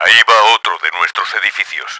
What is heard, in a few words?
building 2 destroyed, Spanish; Battle Engine Aquila; XBOX